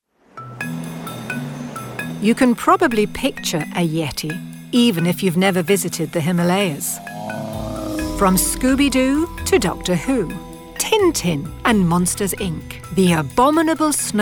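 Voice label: English British, female, narration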